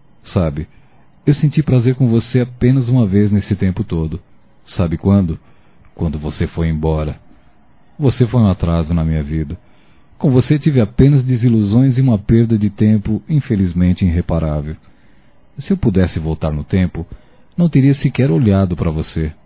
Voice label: Xingando Ex-mulher Masculino; Telemensagens 2017

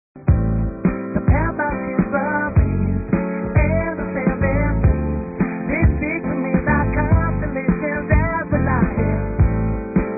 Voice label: ANIVERSARIANTE F; Dia Dos namorados; fem; Telemensagens 2017

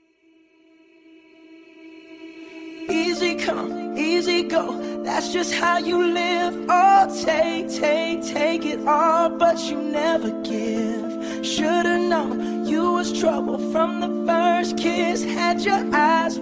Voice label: SAUDADES AMIZADE FEM

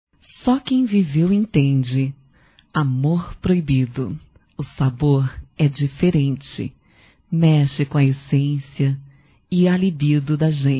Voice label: Feminino; Amor Proibido Feminino

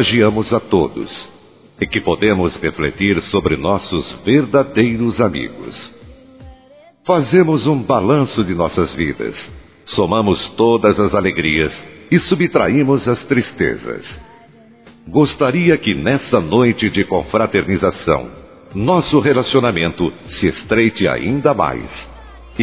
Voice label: NATAL P AMIGA, masc; Telemensagens; Natal e Ano novo

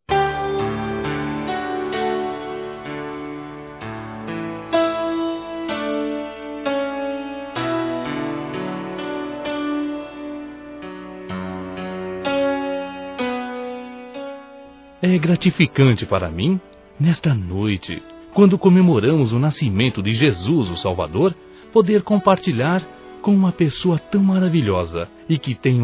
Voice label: Natal Irmão Masculino; Telemensagens; Natal e Ano novo

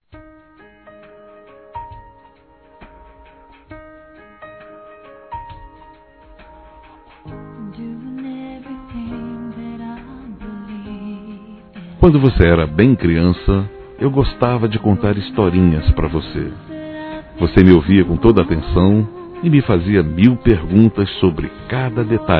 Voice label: Neto (a) Adolescente Masculino; Telemensagens 2017; Aniversários; Aniversário Infantil

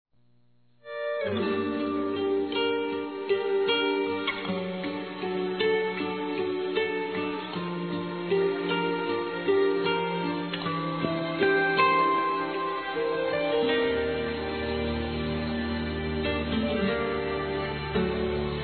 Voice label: Aniversário Namoro Masculino; Aniversários; Aniversários diversos

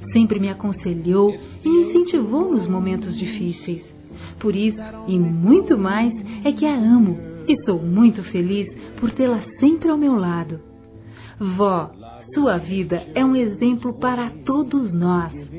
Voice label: AVÓ F, Telemensagens, Dia das Mães; Telemensagens 2017

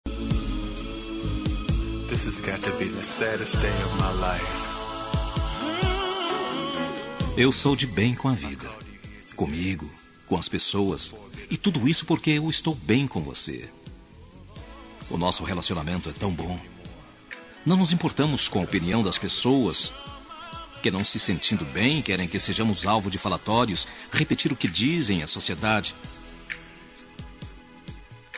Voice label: Telemensagens Amor Masc; Telemensagens 2017

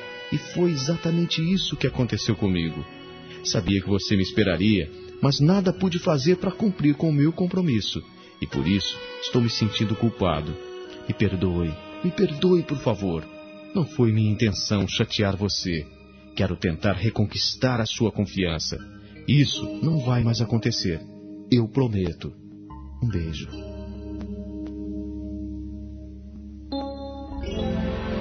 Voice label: DESCULPAS POR NÃO TER ÍDO, masc; Telemensagens 2017

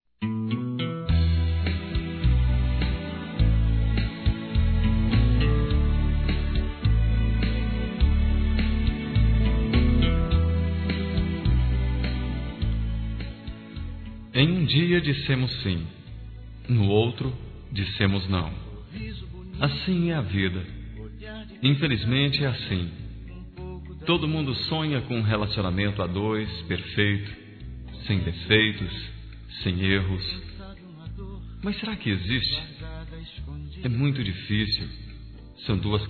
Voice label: Ex Esposa Masculino, Telemensagens

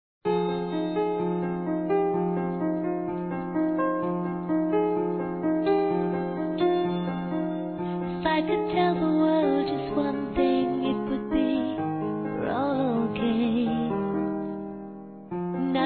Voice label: EX NAMORADA M; Aniversários; ANIVERSARIO romantico; masc

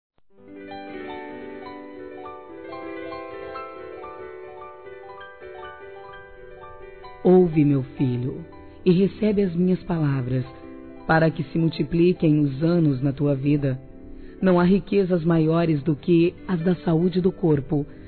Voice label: DEUS QUER TE CURAR, Gospel, fem; Telemensagens; Telemensagens 2017

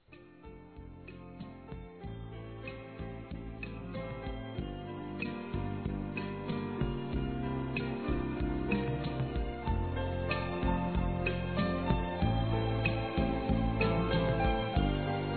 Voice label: VOLTAR A SER CLIENTE, fem, Telemensagens Empresarial; Telemensagens 2017

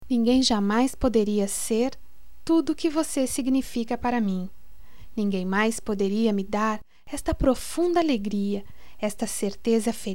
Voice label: So voce F, Só Voz; Telemensagens; Telemensagens 2017

